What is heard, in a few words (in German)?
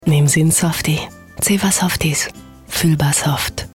deutsch